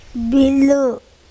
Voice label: "bilu" ship, andamanese